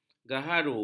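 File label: "gaharu", Malay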